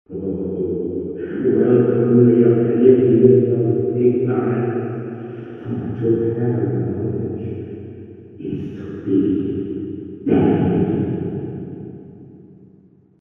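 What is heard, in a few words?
Indistinct Speech; Eternal Darkness Requiem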